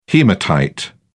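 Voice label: "hematite", British English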